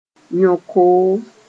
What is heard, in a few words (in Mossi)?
"yõ-koom", moore